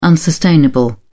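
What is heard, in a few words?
"unsustainable", British English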